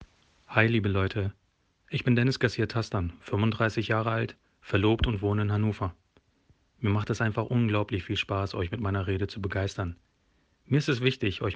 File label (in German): Stimmprobe, Trauredner; Freie Trauung Hochzeit; Trauredner aus Leidenschaft